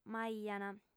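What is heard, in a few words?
"m'aiant", female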